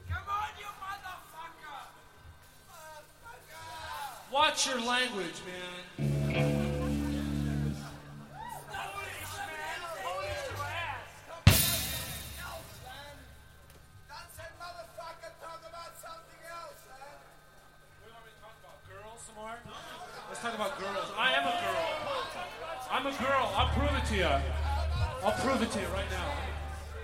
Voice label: Crowd Banter

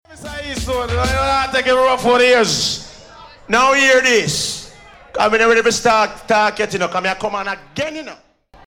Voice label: Vocal, "Now hear this"

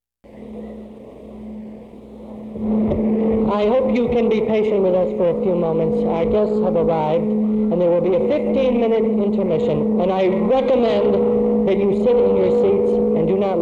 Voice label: House announcer; 1967-10-11 - Danbury High School Auditorium, Danbury

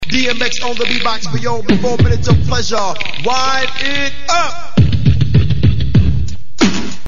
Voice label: Vocal

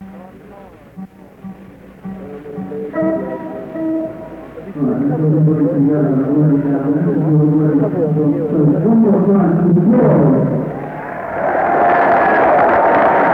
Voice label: House announcer; The Roundhouse, London, UK; 1968-09-06

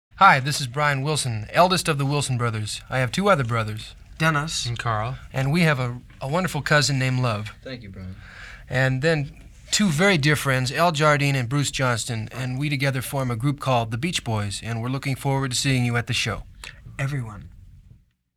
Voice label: Radio Promo Spot

